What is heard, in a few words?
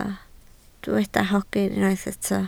"hogyn", Welsh